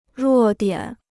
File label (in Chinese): "弱点", Chinese